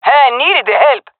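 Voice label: thankful, female; player vo; Counter Strike Model Pack; CS:GO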